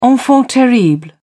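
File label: "enfant terrible", British English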